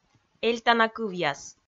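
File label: "эльтана кывъяс" Komi, female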